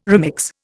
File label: "remix", Indian